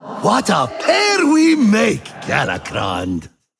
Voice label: Male Ethereal Evil Fight Hero Emote Wow